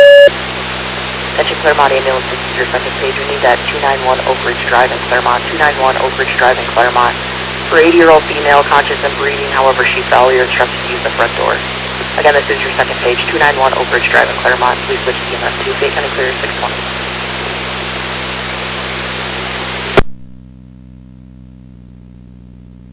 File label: Fire, dispatch; Clermont